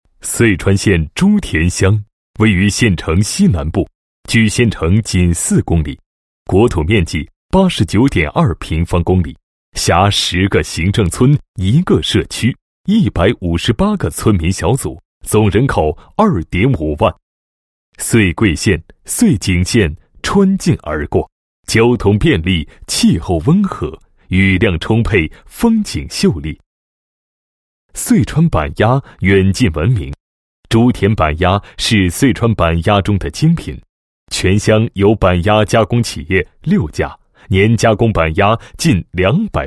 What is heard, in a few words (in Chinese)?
男 地区宣传 宣传介绍; 某县珠田乡宣传介绍